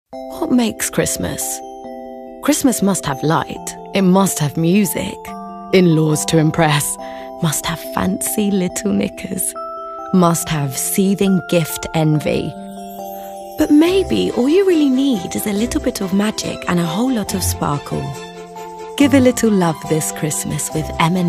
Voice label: female english and spanish castilian english commercial